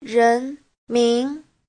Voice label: "ren2min2", chinese; Giáo trình Hán ngữ